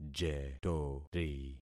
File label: "jetori", concat syllables; Affixing experiment